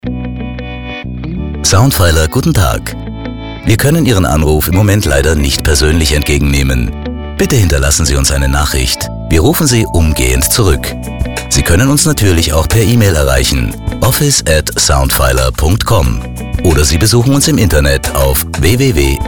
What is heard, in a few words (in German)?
Telefonansage